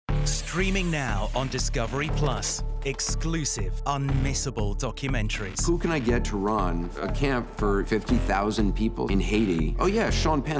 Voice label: PROMO; Discovery Plus

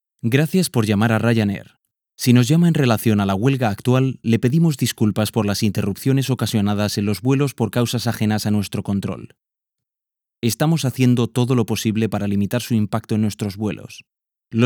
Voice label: Spanish; Ryanair

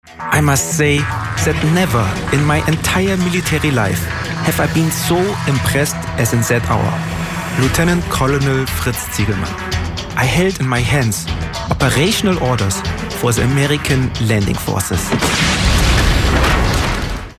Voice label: German Accent; History Channel DDay in HD

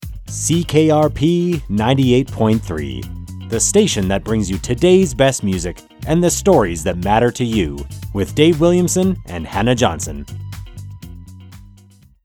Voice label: Radio Station Imaging

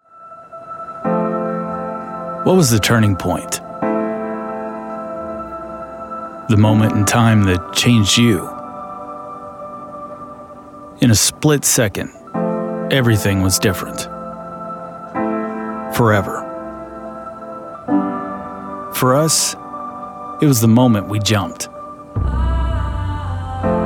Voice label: Documentary VO

